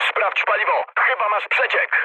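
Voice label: tank damaged mood med, speech Polish; War Thunder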